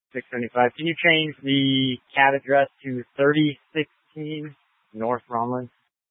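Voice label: Sheriff Dispatch, Scanner audio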